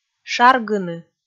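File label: "шаргыны", Komi, female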